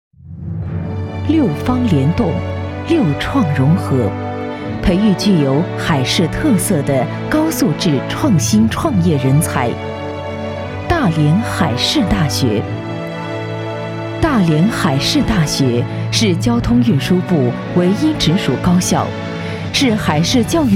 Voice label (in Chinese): 宣传学校 恢弘大气; 大连海事大学